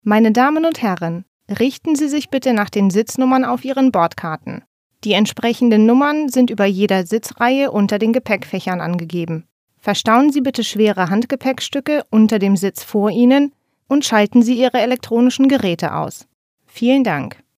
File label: public announcement, german